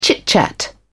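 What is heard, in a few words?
"chit-chat", English, British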